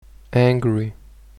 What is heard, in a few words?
"angry"